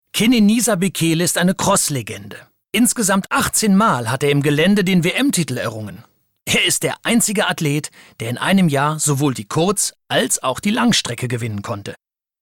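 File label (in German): kommentar, sprecher; LOFT VOICES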